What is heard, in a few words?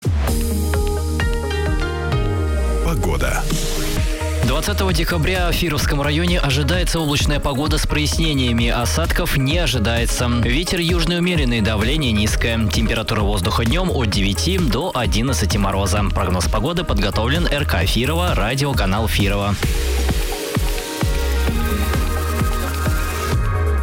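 Radio, Local weather forecast; Radio MIR Firovo 101.1 MGc; Mestnyj prognoz pogody 19.12.2022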